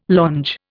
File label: "longe", Indian